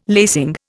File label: "lacing", Indian